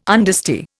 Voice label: "understeer", Indian

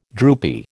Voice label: "droopy", American

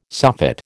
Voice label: "soffit", American

